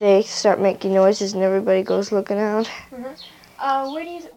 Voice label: Conversation with 12 year old white female Detroit Michigan, american english dialect